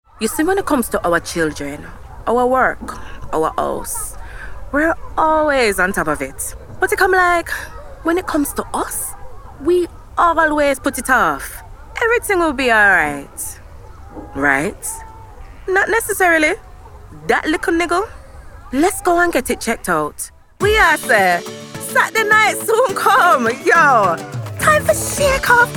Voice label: Jamaican

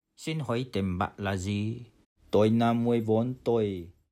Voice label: Vietnamese; language

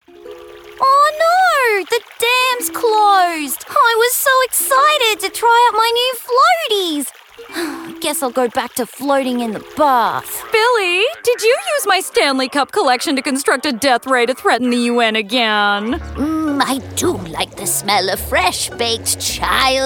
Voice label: Animation

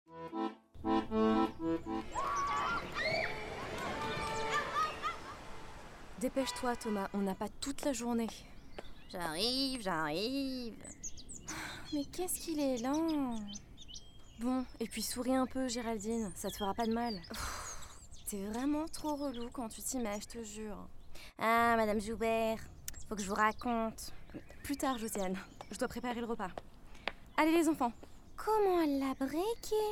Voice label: French Animation, voices

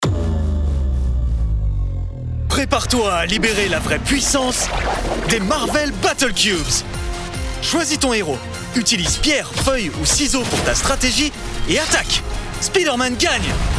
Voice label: Commercial (FR)

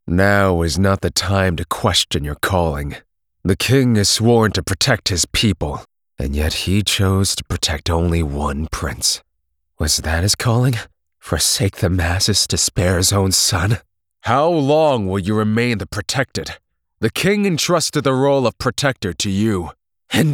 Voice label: Character

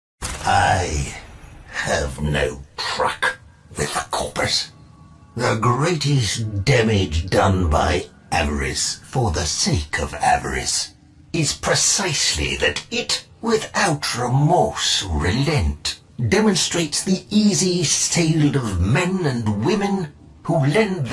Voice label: GAMING, en-US

